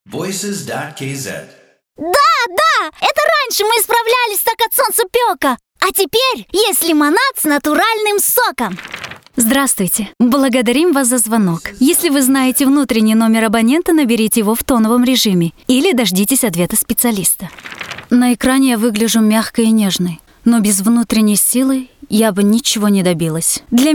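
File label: Russian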